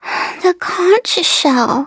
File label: defeat, en